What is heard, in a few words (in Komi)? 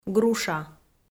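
"груша", Komi, female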